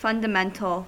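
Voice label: "fundamental", American English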